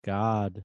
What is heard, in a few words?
reverse speech god